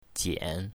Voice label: "jian3", chinese, mandarin